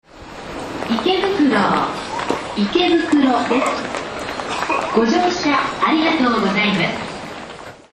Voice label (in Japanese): 到着放送 池袋〜、いけぶくろです。; 東武東上線; 川越・小川町方面